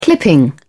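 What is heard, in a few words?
"clipping", British English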